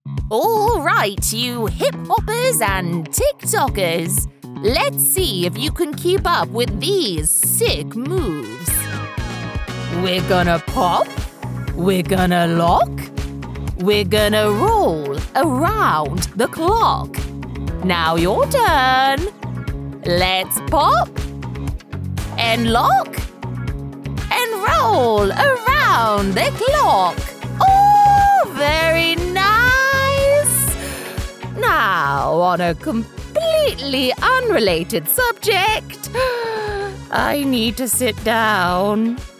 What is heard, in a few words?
Groovy Grandma